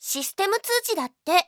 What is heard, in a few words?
notice, Japan Anime Girls; Windows; Bing; Nanako